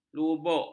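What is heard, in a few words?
"lubuk", Malay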